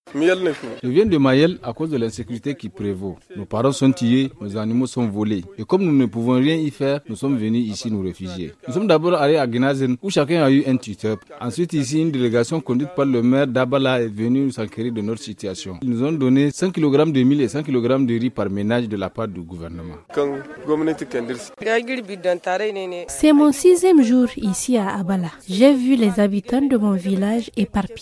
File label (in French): VOX POP; FR; PERSONNES DEPLACEES VILLAGE MIEL VERS ABALA GUERO